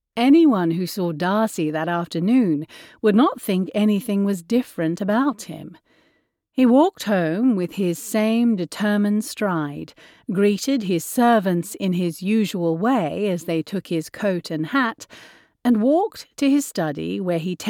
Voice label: heightened RP narration only; Dearest Friends

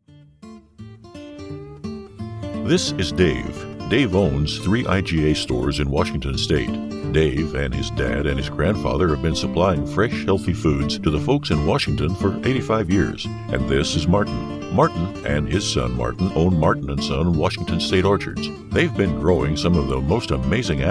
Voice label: Style Commercial

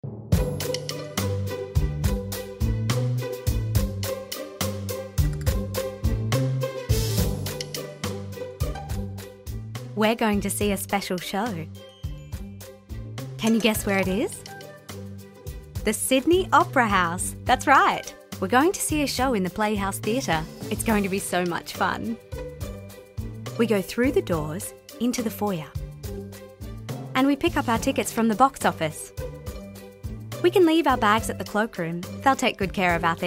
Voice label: Relaxed

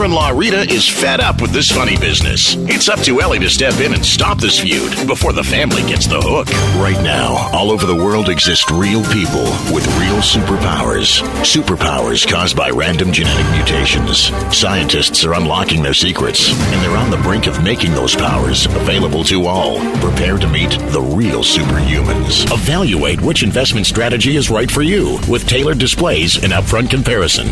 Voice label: TV narration

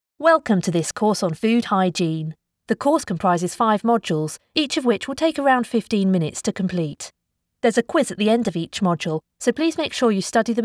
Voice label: eLearning

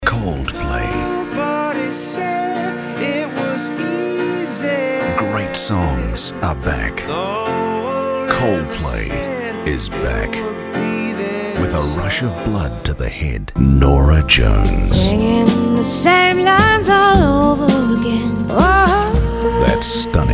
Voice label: Soft Sell

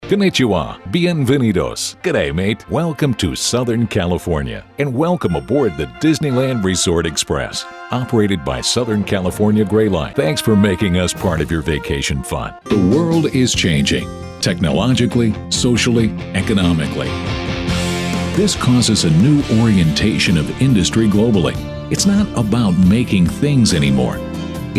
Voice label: Narrations

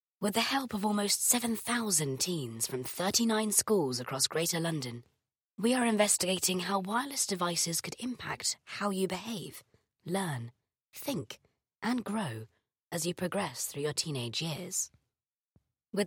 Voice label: Explainer Video University

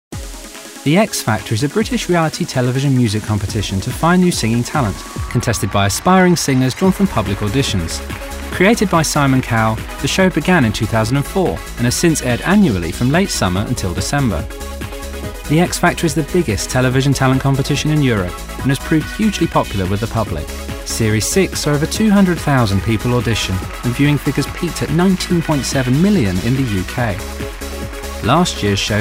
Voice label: Narrative

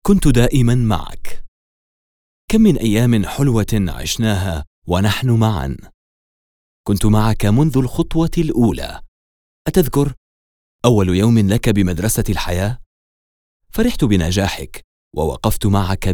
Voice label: conversational Bass

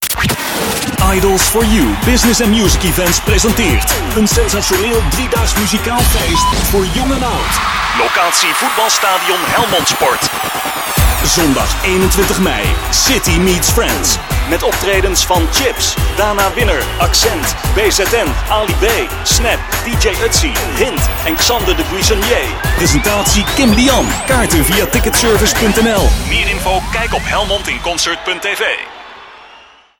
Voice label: hard sell; Idols

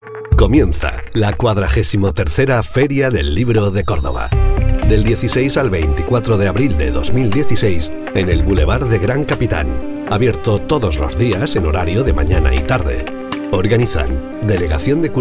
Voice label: radio

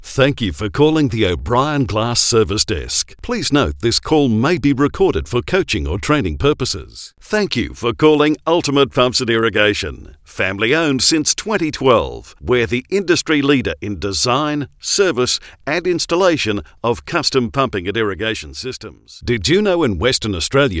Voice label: Phone On Hold

